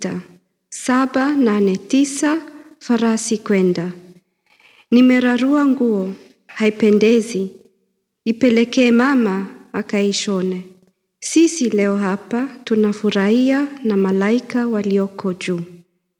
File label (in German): Swahili Tansania, kinderverse